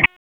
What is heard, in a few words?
Police Tac, p25; wake